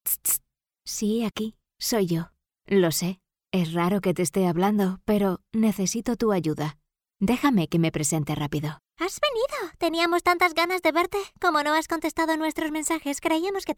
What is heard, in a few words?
female cast; Banco de voces